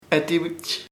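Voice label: belch eructar, Chamacoco word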